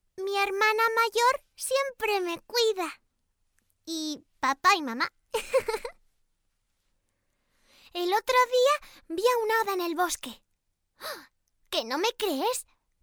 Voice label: Spanish child voice, 8 years old, locutora nina, child voice; child voice, locutora infantil